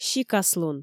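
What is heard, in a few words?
"сикаслун", Komi, female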